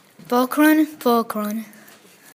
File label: "Present", Croatian; Pokloni